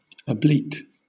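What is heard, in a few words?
"oblique", British English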